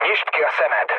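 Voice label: Hungarian player friendly fire mood low, speech; War Thunder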